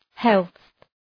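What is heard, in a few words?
"health", English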